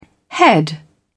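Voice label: "head", British English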